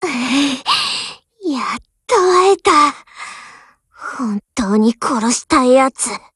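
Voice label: BREAK Japanese; GF Alarm; UMP45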